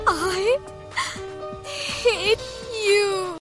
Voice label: i hate you girl voice ringtone